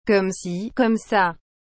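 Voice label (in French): "Comme ci comme ça", French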